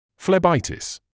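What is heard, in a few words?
"phlebitis", British English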